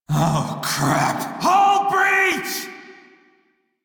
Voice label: Crew See Breach, Male; Dialog; Warframe; Railjack Crew; Red Veil

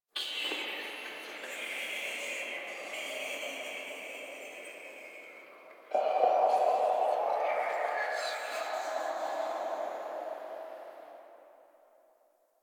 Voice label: Whispers; Inf Hub Lab Many Lights; Warframe; Ambience; Entrati

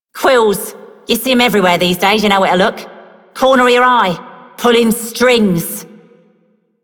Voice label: Female Worker, Female One, en; Dialog; Warframe; Solaris Venus

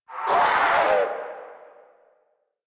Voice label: Pain; Warframe; Zariman; Zariman Wraith

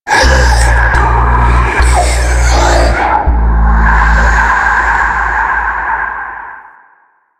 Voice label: Elder Speak; Entrati; Warframe; Man In The Wall

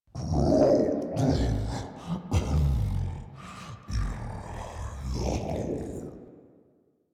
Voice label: Idle Grineer Ghoul Devourer, en

